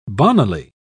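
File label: "bonnily", British English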